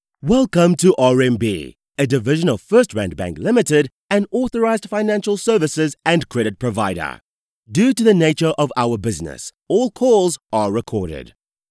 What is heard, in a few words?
English South African IVR